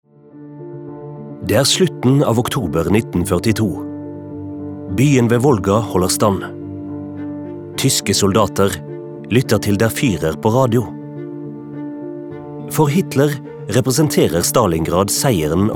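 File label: Norwegian documentary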